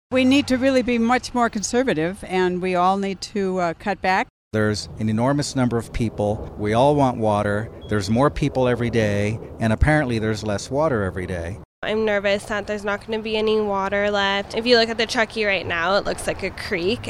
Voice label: water concerns vox pop

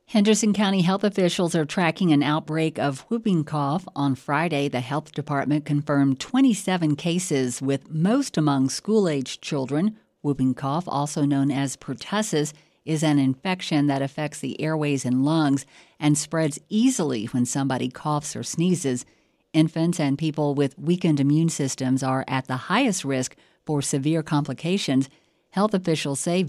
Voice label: newscast; whooping cough outbreak henco

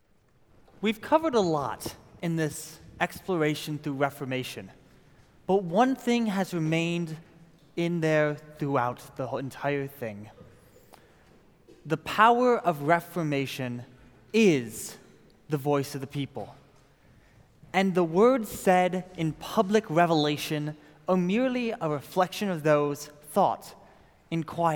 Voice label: narration

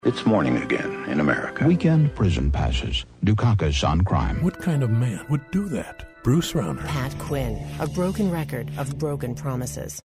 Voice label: voiceover intro

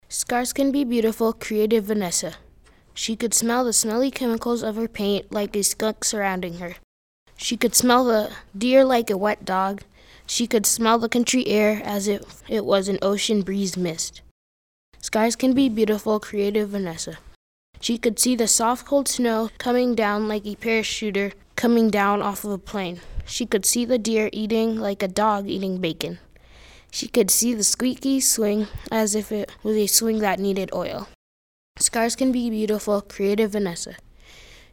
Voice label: voices group

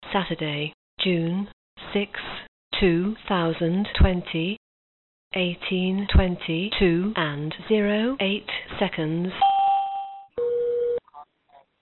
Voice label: 911 call; fiu protest arrests

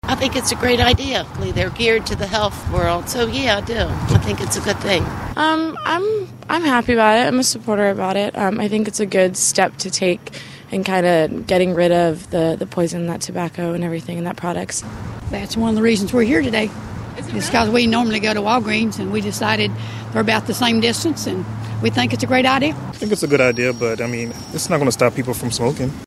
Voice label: smoking vox pop

